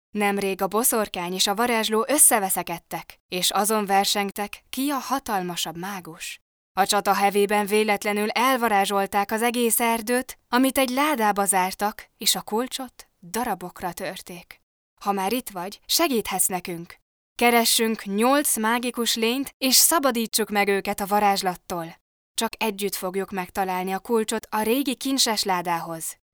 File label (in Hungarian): HUN elearning; Mese